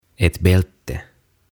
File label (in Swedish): "Ett Bälte" Vyö